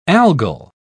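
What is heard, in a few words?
"algal", British English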